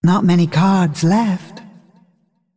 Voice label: Female Dragon Low Cards